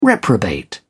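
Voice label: "reprobate", British English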